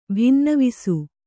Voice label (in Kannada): "ಭಿನ್ನವಿಸು", Kannada